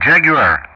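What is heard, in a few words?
"Jaguar", English; Ka-50; Callsign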